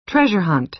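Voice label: "treasure hunt", American English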